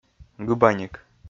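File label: "гыбаник", Komi, male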